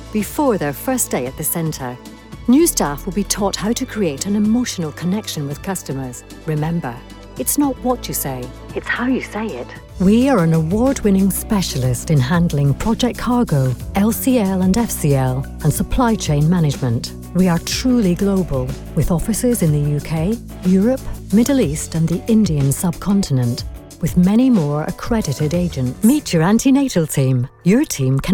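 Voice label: Scottish English, female, e-learning, accent of scotland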